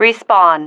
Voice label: "respawn", female

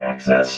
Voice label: "access", vox robot

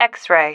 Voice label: "xray", female

